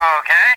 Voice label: "okay", expletives

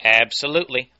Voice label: absolutely announcer

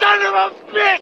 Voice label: son of a bitch male; Voice

